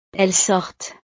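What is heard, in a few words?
"elles sortent", French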